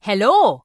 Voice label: Dwarf Female Guard NPC Greeting